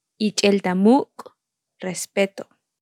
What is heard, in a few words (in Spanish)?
Palabras en tsotsil, Ich'el ta muk' - Respeto